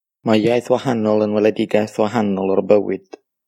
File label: welsh